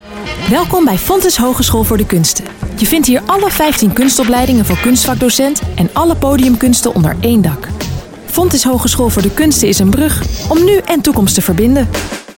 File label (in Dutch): Stemdemo Jong Fris